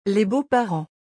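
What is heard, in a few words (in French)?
"Les beaux parents", French; vocabulaire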